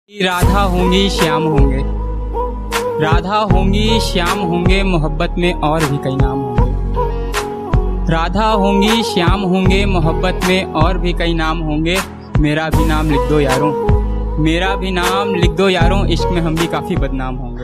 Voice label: Sad Shayari; ringtones

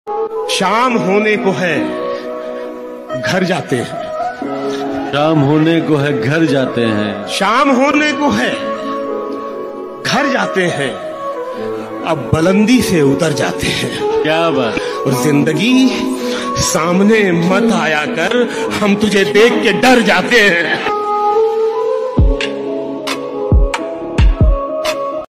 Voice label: Shayari Ringtone

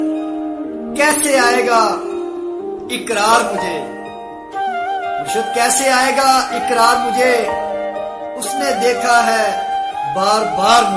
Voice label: Shayari Ringtone

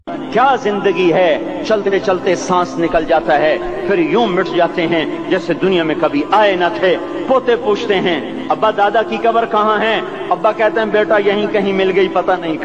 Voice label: Islamic Ringtone; Tariq Jamee

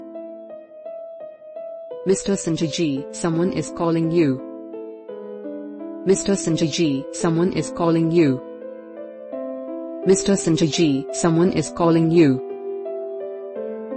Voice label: Sanjay Name Ringtone In Hindi

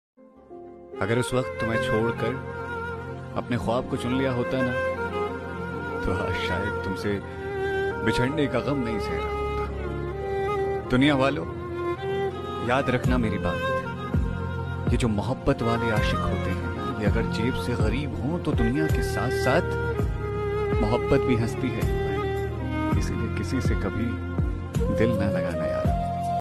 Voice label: Shayari Ringtone; Tum Say Bicharny Ka Gham Nahi Sehta

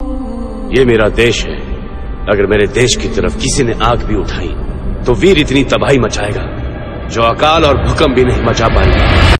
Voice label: Emotional Hindi Dialogue Ringtone

